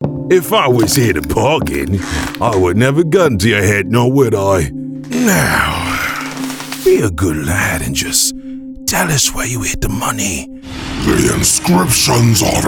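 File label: animation men video game, Voice Over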